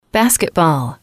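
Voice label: "basketball", English, female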